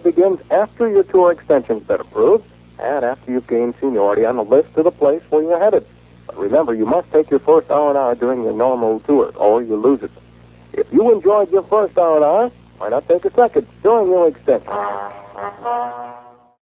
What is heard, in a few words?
Extending R&R PSA